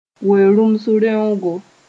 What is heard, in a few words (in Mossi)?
"we-rũms reongo", moore